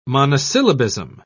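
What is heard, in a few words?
"monosyllabism", British English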